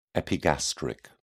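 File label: "epigastric", British English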